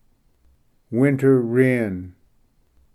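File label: "winter wren", English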